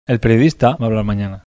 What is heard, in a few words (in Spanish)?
acento oracional foco sujeto, bizkaia masculino; spansk; fonetica